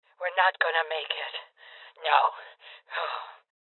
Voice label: Voices Heavy Losses Female Old